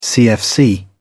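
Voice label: "cfc", British English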